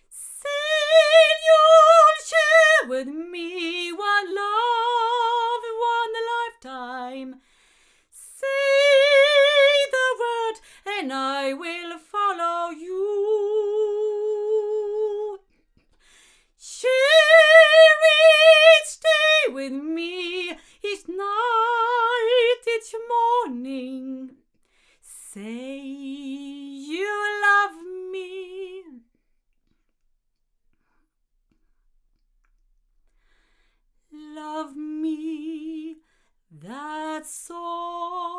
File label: Avant CK-7 omni female